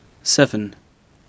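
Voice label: "seven", English, male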